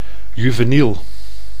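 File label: "juveniel", Dutch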